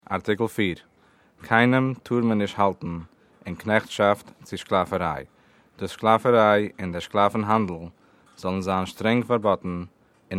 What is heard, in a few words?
Yiddish